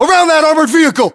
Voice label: US landmark near armored vehicle, battlechatter, english